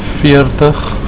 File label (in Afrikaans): "veertig", Afrikaans